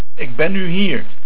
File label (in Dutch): Hier, Dutch